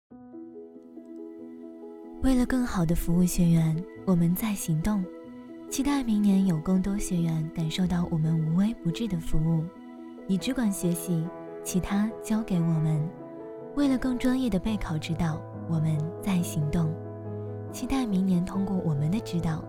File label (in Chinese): 宣传片; 备考